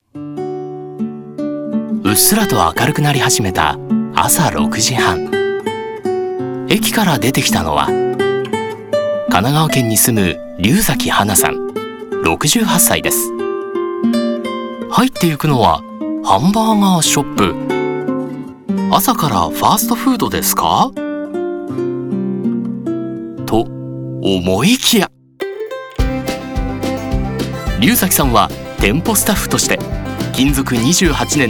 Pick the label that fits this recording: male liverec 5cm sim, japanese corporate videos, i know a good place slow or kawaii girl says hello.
japanese corporate videos